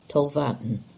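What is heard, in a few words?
"Tolvaddon", English; place-names; Talvan c1200 (Gover, 1948)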